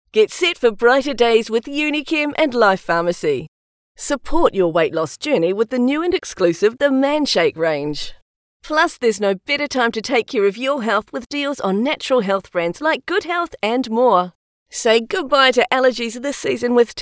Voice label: TVC, New Zealand Female Voice Over Talent; Unichem & Life Pharmacy